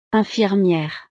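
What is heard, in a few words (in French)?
"infirmiere", French; profesiones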